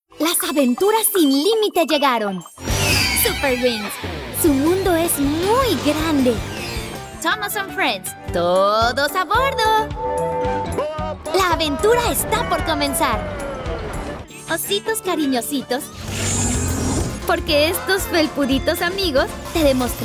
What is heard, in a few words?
Spanish promo